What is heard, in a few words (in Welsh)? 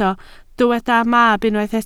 "mab", Welsh